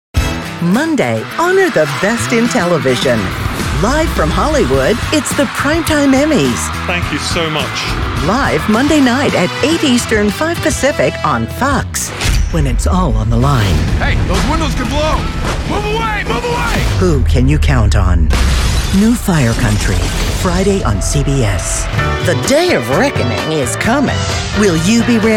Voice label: women promos